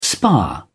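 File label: "spa", English, British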